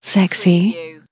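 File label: Female Says Sexy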